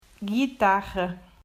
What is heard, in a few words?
"Guitarra", Portuguese